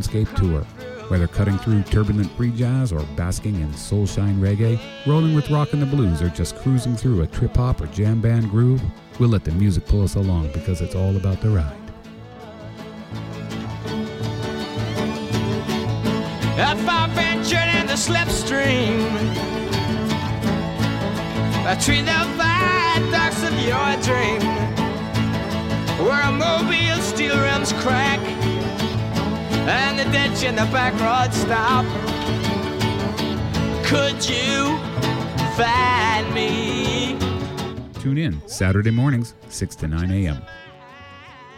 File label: radio promo; slipstream